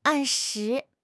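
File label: "àn shí", Chinese; HSK4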